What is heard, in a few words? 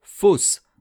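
"fausse", French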